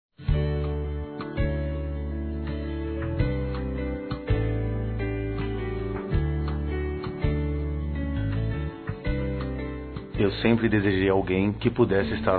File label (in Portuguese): Evangélico Masculino, Telemensagens Dia Dos namorados; Telemensagens 2017